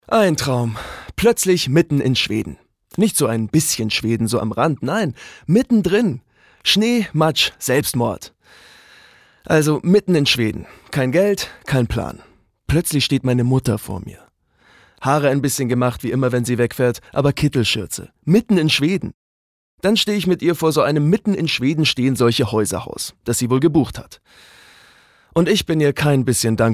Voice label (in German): Schauspiel Lebendig